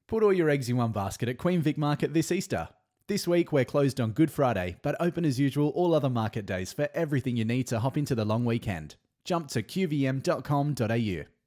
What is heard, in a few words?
Soft Sell DRY